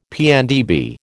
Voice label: "PNdB", American

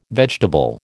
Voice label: "vegetable", American

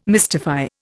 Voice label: "mystify", Indian

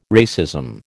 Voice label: "racism", American

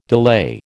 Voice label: "delay", American

